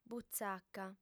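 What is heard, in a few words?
"butzaca", female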